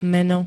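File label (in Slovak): "meno", Slovenské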